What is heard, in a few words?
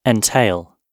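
"entail" British English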